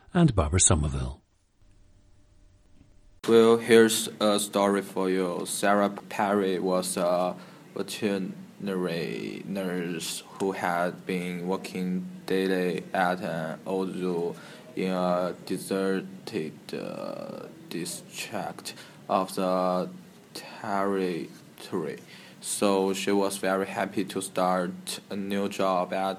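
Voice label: shanxi, International Dialects of English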